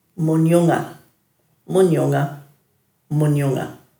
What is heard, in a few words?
"munyunga", Dhurga